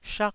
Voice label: "shak4", taiwanese